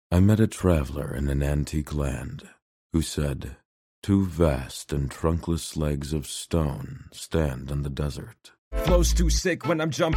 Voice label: poetry spoken word rap